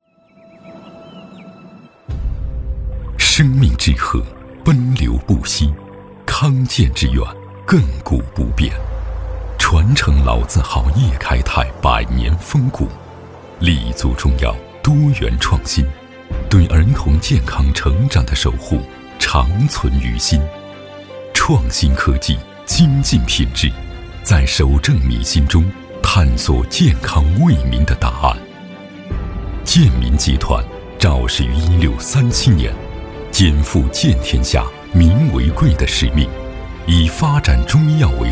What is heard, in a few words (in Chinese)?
男 宣传 企业 片 大气高端; 健民集团